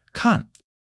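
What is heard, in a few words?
"kān", Chinese; 基础汉语40课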